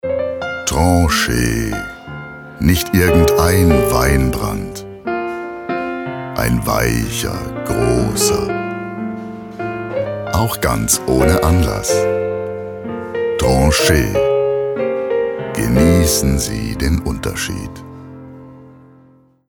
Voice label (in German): Deutsch Weinbrandwerbung